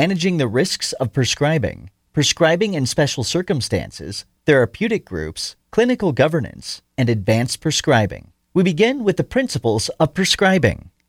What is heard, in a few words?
english american e-learning